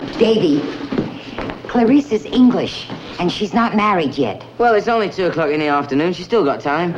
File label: english